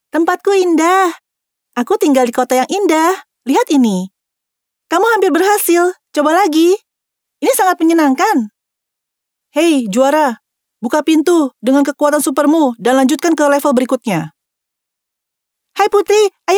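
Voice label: INDO female children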